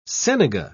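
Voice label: "senega", English, British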